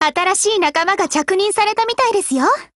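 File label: BUILD OVER Japanese, Voice; GF Alarm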